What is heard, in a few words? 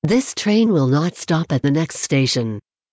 This Train Will Not Stop, BART; bayarea